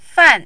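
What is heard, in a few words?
"fan", fourth tone, Mandarin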